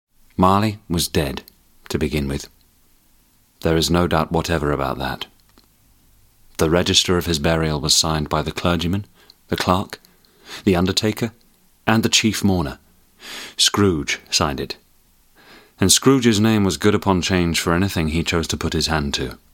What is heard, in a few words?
Narration